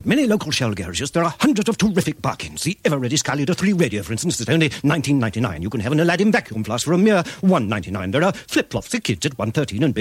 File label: Radio Advert; Radio Hallam; Shell - Bargains